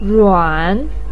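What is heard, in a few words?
"ruan", Mandarin pinyin, third tone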